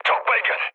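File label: Korean enemy detected mood low, speech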